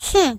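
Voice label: "Hmm", British English; hedgewars; Default uk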